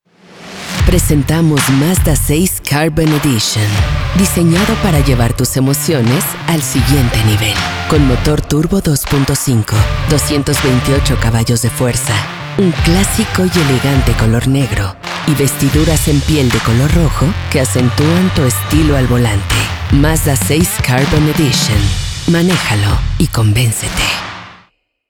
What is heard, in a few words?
Automotive Deep Voice; Mazda